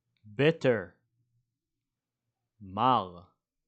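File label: "bitter" English, "מר" Hebrew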